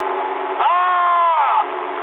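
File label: English damaged pilot mood low; speech; War Thunder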